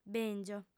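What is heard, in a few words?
"bèngio", Sardinian, female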